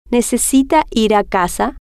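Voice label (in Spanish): necesita Ir Casa, spanish, female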